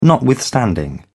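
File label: "notwithstanding", British English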